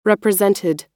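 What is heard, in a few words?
"represented", US English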